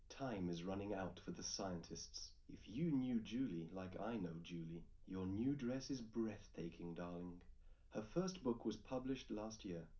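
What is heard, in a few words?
male, live recording, 250 ms, 1 m; SiSEC11; underdetermined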